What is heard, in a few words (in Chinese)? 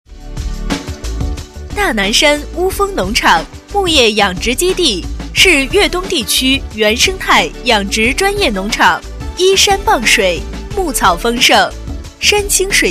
女 大南山乌丰农场, 国语女声配音 彩铃广告